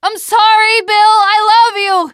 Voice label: teengirl, voice; c6 dlc3 bill dies